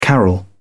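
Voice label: "carol", British English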